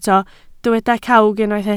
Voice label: "cawg", Welsh